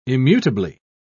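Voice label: "immutably", British English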